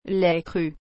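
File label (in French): "lait cru", French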